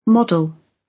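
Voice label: "model", British English; The arts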